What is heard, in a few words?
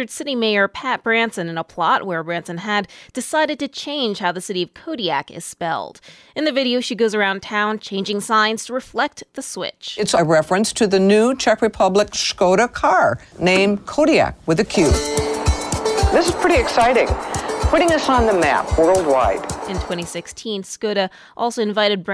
Commercial; Skoda; Desroches